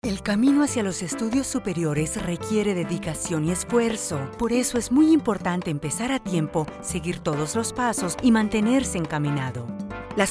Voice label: Announcer Spanish; FAFSA